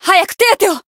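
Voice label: heal, Japanese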